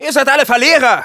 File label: taunts, de; Scout